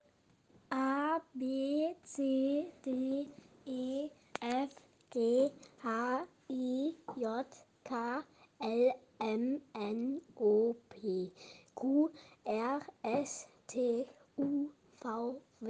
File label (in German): alphabet, Das deutsche Alphabet